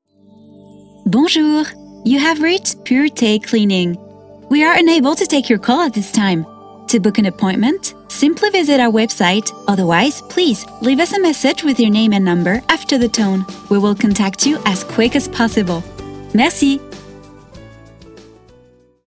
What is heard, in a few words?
en